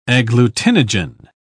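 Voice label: "agglutinogen", British English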